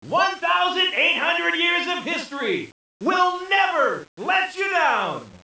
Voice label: narration; kof